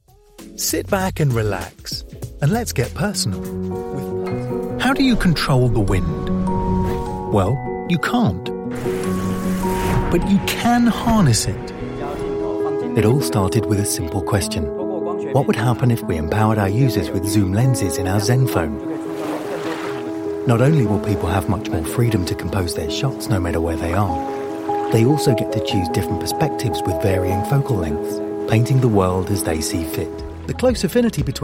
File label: english british explainer videos; une voix authentiquement britannique originaire de Londres, au Royaume-Uni